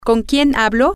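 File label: Spanish, with whom am I speaking, female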